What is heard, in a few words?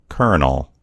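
"kernel", US English; gcompris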